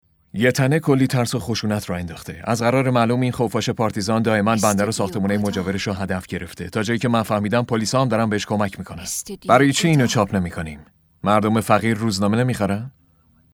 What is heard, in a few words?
dub